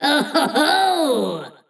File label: Troll VO Success